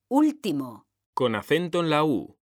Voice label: "ÚLTIMO", M; mekanta

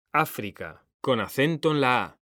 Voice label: "ÁFRICA", H; mekanta

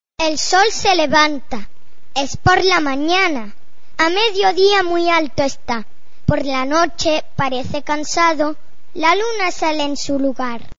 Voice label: poesia sol levanta

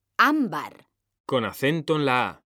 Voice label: "ÁMBAR", M; mekanta

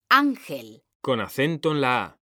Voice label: "ÁNGEL", M; mekanta

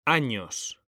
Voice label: "AÑOS", H; mekanta

